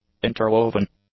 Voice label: "interwoven", english; adjectives